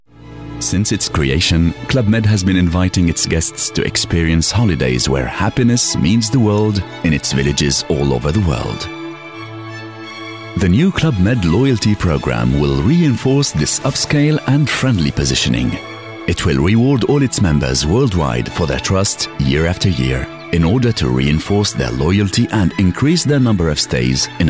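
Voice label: French, male, accented English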